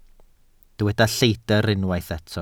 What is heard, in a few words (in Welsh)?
"lleidr" Welsh